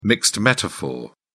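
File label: "mixed metaphor", British English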